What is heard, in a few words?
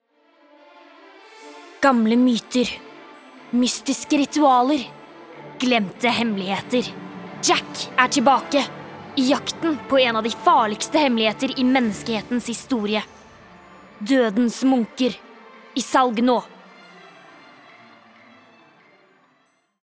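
Norwegian movie trailer, female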